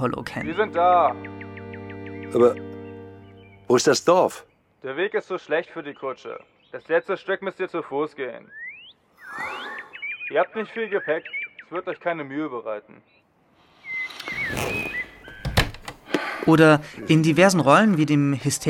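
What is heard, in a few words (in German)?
Hörspiele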